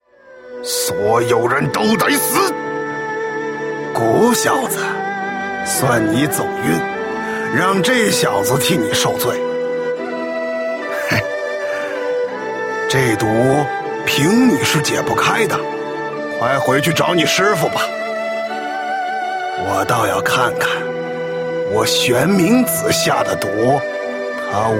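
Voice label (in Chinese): zhonglaonian, middle-aged and elderly man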